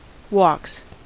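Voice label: "walks", US English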